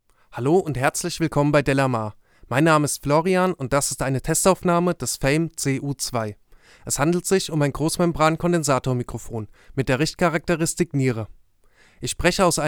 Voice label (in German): sprache m; fame studio cu2 test